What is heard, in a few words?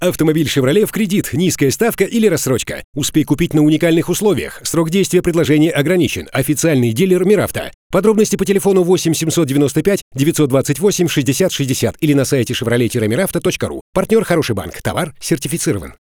Taimer M voice